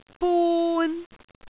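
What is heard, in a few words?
"bun3", Cantonese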